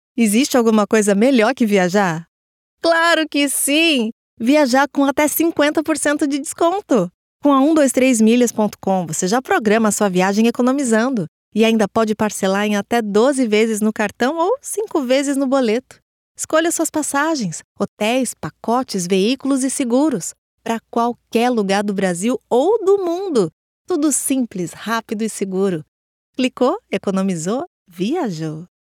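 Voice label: Brazilian Portuguese female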